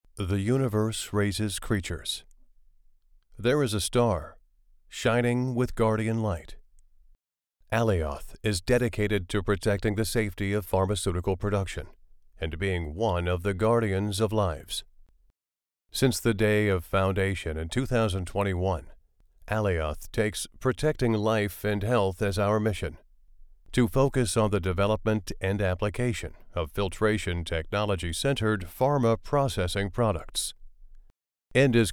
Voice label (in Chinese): 低沉 宣传片